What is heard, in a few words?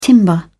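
"timber", British English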